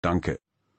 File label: "Danke", German